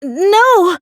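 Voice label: "no" spoken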